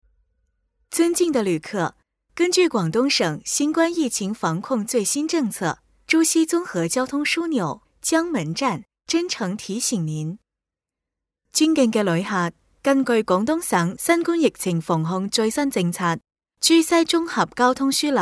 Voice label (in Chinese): 女 中英粤三语; 江门站三语